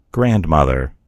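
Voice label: "grandmother", American English; gcompris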